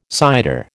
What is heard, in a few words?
"cider", American